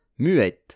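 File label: "muette", French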